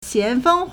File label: "xian2feng1", Chinese